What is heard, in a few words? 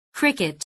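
"cricket", English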